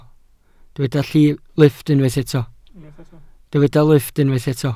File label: "lifft", Welsh